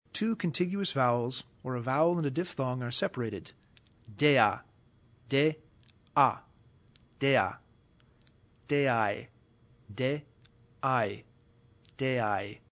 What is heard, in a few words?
two contiguous vowels, Latin